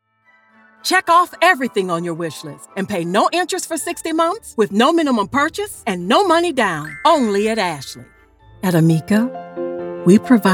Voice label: Commercial, Voice Over